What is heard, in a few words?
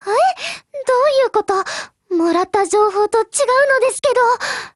BREAK JP